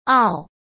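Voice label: "傲", Mandarin Chinese; hsk; chinese flashcards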